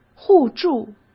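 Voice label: "互助", Mandarin Chinese; chinese-flashcards; hsk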